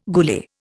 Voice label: "goolie", Indian

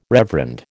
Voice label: "reverend", American

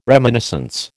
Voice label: "reminiscence", American

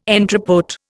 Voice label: "entrepÓt", Indian

